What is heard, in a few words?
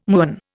"muon", Indian